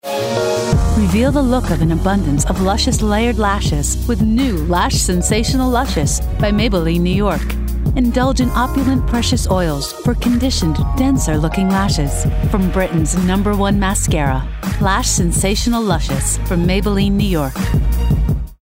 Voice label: COMMERCIAL; Maybelline